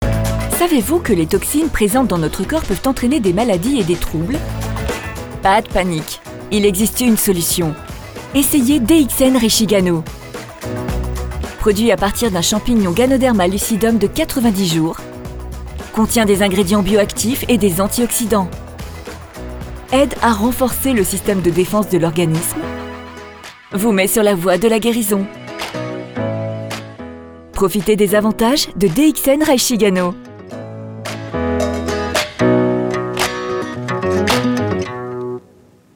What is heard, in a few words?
VO PUB; DXN BLACK